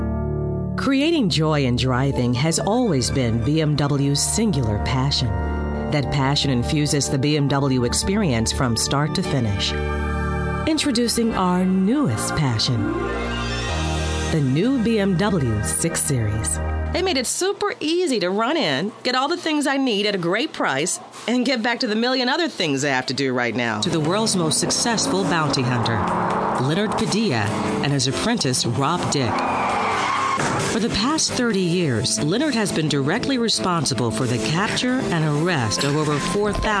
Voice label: Commercial, Female voices